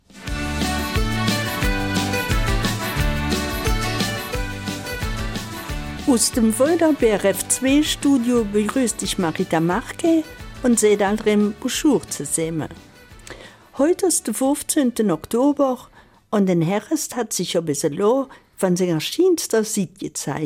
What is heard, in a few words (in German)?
Eifeler Mundart; Paläontologie und Paläogeographie